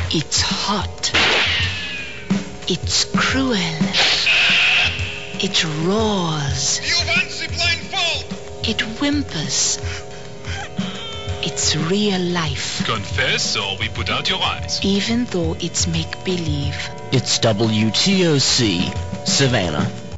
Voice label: Mysterious female ID